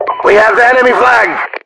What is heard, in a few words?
enemy flag, voiceovers english